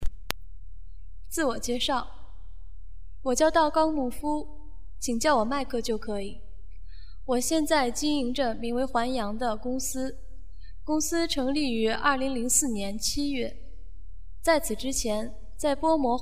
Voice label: Intro chinese